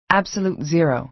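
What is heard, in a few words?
"absolute zero", American English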